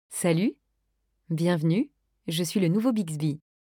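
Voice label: onboarding provision greeting, French; Samsung Galaxy S10; Bixby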